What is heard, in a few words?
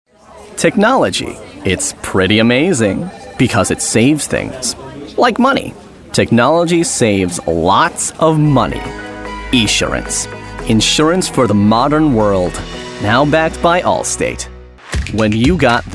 COMMERCIAL